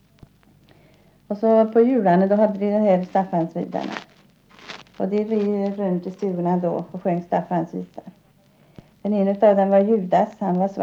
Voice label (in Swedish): Intervju; om Staffansseder